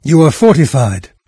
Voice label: fortified, narrator; Fate Undiscovered Realms